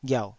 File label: "ghiauh3", Taiwanese syllable